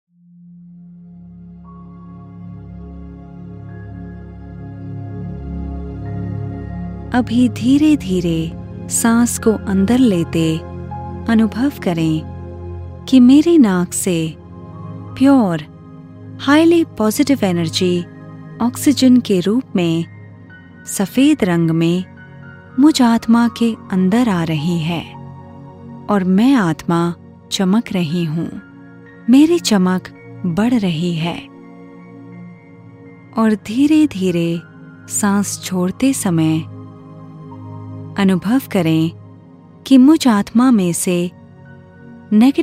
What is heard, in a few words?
Breathing Practice, Hindi, Meditation; SoulConsciousness